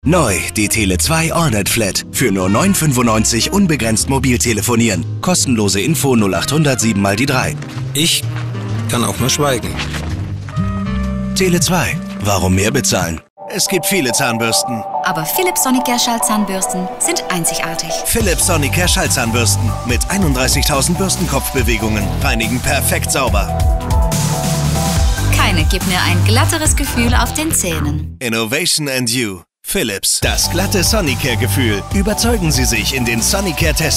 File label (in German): werbung mix